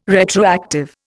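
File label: "retroactive", Indian English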